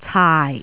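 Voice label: "caai4", Jyutping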